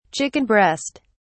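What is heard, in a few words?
"chicken breast", British English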